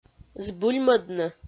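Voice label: "збыльмӧдны", Komi, female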